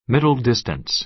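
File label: "middle distance", American English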